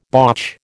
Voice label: "botch", American